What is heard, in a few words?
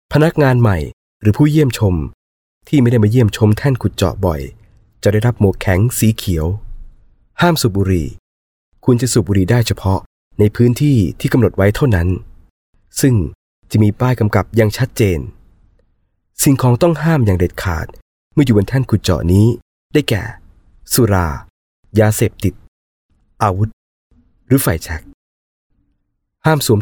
Thai M EQ